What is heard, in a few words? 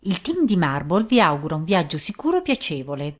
Italian; marble